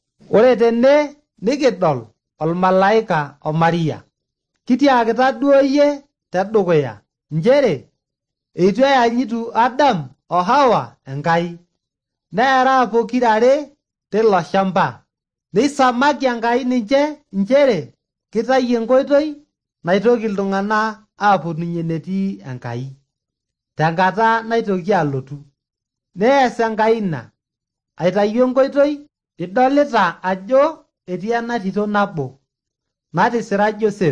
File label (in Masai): maasai bsv nt drama; good news; bible